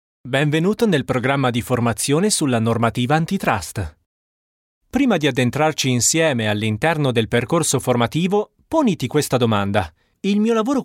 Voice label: italian voiceover elearning